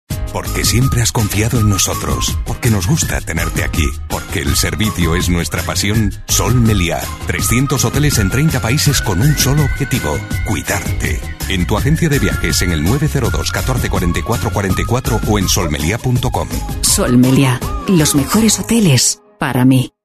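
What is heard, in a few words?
Spanish EU, male, commercial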